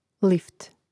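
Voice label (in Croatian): "lift", Croatian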